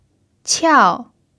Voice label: "qiao4", chinese pinyin